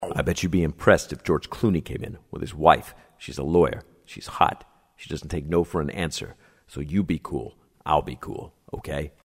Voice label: Character; George Clooney